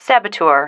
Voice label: "saboteur", female